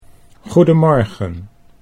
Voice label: "goedemorgen", Dutch

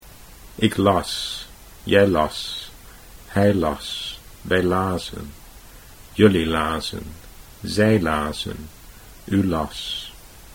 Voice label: ik las, Dutch

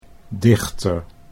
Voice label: "dichter", Dutch

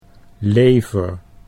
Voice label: "lever", Dutch

